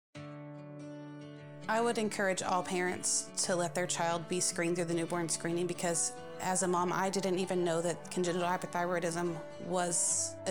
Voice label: PSA Radio English; OSDH; Newborn Screening Rural